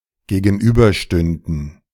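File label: German "gegenüberstünden"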